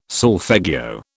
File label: "solfeggio", British